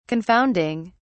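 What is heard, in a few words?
"confounding", British English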